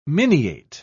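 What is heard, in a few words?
"miniate", British English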